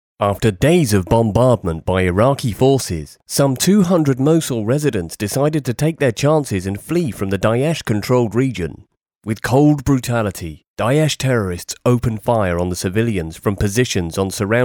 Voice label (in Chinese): 低沉干音国际新闻播报